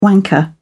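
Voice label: "wanker", English, British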